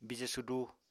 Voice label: "bije sudu", Apatani